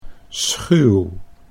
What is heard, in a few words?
"schuw", Dutch